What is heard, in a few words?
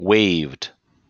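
"waved", US English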